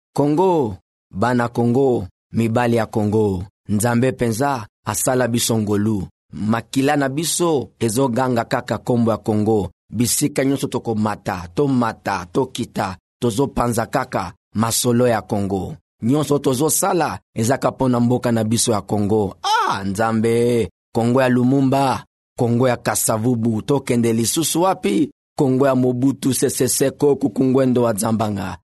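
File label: Lingala, male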